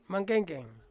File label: "mangkengkeng", Ansus; turtle